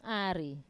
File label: "ari i", Wamesa